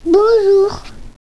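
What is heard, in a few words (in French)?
"bonjour", French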